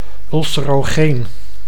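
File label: "ulcerogeen", Dutch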